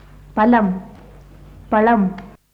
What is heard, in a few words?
tamil palam; [ˈpalam ˈpaɭam]